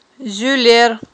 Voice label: "зуьлер", Aghul